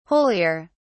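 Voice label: "holier", British English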